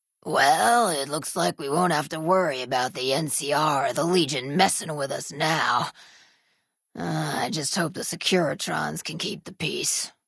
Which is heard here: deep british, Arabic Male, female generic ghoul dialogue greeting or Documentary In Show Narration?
female generic ghoul dialogue greeting